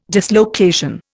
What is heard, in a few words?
"dislocation", Indian